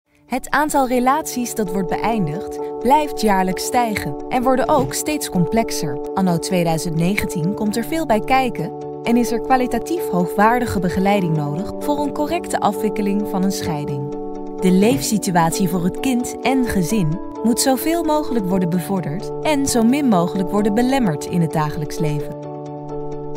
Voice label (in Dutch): Zakelijk